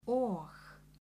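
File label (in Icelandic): "og", Icelandic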